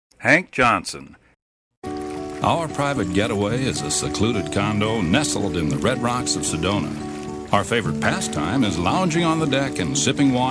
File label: Commercial, Male voices